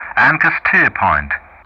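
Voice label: "anchor steerpoint", English; Ka-50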